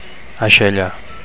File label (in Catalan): "aixella", Catalan; (f) armpit